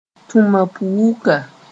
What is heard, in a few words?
"tũmapʋwuka", moore